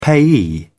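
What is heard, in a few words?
"payee", British English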